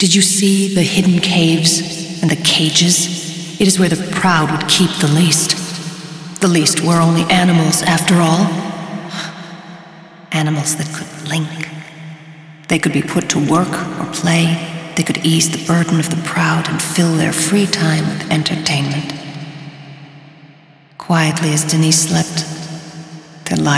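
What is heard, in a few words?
Speech english; Yeesha; Tldn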